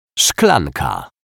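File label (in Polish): "szklanka", Polish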